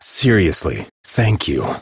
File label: Citizen Male, en; Citizens